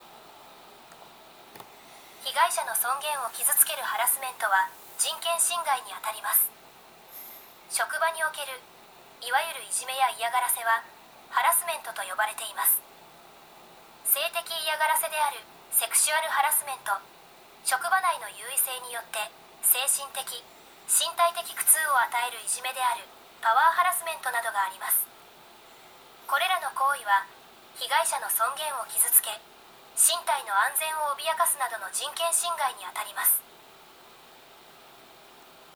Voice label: elearning; japan; business and human rights